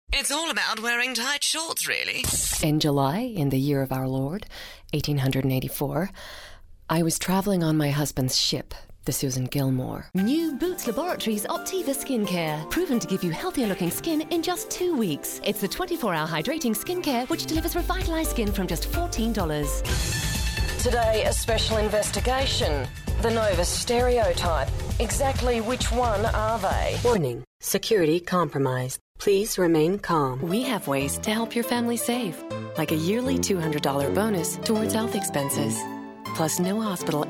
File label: Character